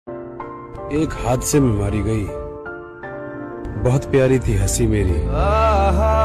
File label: Shayari Ringtone